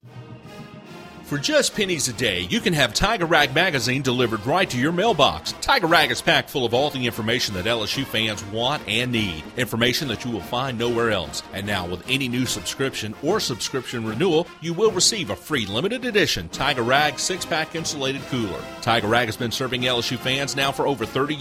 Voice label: Commercial